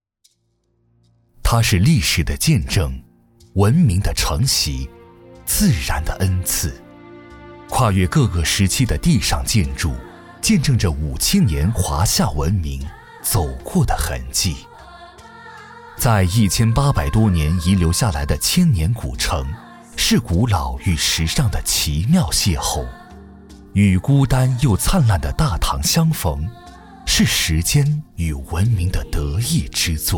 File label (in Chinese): 男, 纪录片 民族非遗; 它是历史的见证